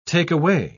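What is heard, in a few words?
"take-away", British English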